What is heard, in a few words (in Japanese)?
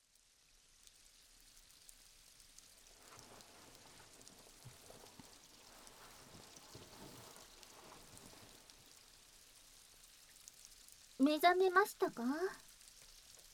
古寺ノ雨宿リ(添い寝)【BGMなし】, ASMR